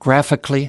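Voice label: "graphically", US English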